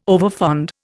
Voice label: "overfond", Indian

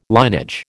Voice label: "linage", American

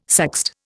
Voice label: "sext", Indian

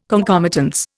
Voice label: "concomitance", Indian

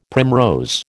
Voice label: "primrose", American

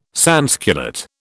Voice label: "sansculotte", British

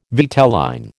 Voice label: "vitelline", American

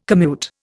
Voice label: "commute", Indian

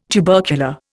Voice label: "tubercular", Indian

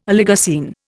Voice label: "Oligocene", Indian

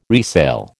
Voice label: "resale", American

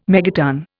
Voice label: "megaton", Indian

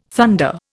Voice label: "thunder", Indian

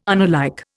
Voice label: "unalike", Indian

